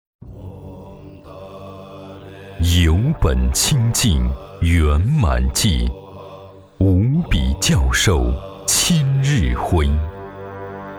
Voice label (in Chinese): 男, 专题